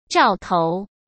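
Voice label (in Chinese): "兆头", Mandarin Chinese; hsk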